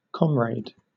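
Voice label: "comrade", British English; pronunciations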